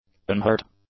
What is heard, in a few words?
"unhurt", english; adjectives